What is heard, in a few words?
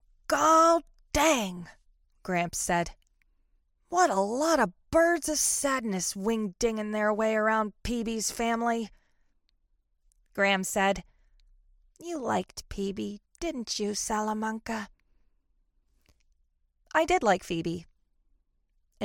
Voiceover Audio Books; new york